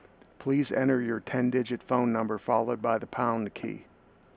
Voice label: Voice Callback Manual Phone Entry Message